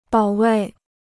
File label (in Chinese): "保卫", Chinese